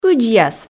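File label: "кыдзьяс", Komi, female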